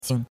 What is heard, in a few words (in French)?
"jing5", chinois; Cours de Chinois